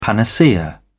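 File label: "panacea", British English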